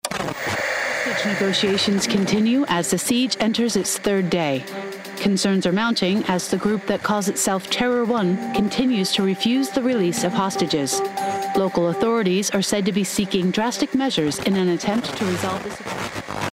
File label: Newsreader; GAMING